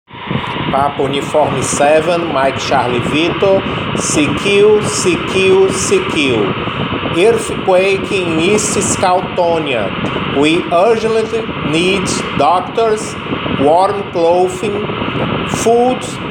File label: Voice Message, SOS, Simulated Emergency; Scoutonia; JOTA-JOTI 2022; Communication during emergencies